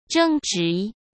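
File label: "争执", Mandarin Chinese; chinese-flashcards; hsk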